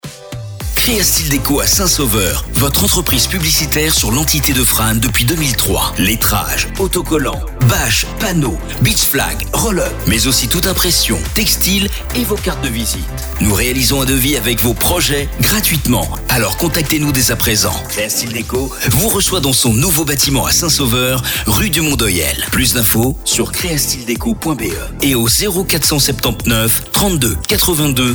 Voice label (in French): Voix Homme, voix off